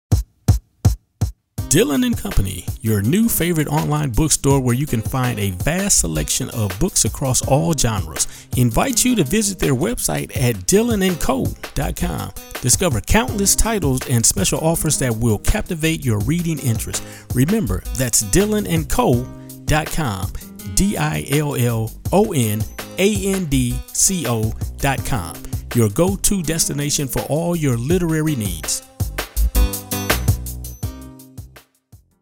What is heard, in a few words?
commercial; Dillon and Co